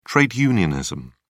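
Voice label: "trade unionism", British English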